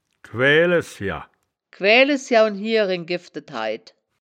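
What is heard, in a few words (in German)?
"quaeaelesjer", wittlicher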